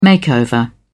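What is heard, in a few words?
"makeover", British English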